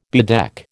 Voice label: "bedeck", American